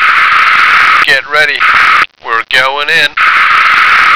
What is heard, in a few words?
"get ready", American